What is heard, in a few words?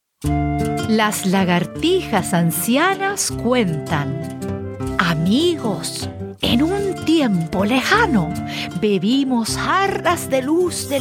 Spanish; Pachanga Deliciosa